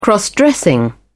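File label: "cross dressing", British English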